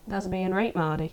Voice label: Yorkshire, accents of english